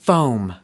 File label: "foam", us